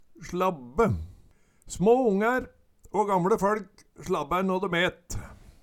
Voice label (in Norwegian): "slabbe", Numedalsmål; Små ongær å gamLe føLk slabbær nå døm et